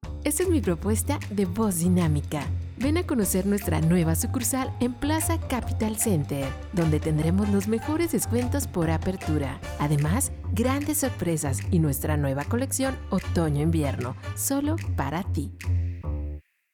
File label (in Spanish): Dinamica, locutora